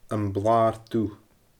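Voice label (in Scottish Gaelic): "Am Blaar Dubh", Scottish Gaelic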